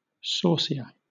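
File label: "saucier", British English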